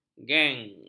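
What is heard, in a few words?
"genang", Malay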